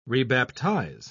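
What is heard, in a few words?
"rebaptize", British English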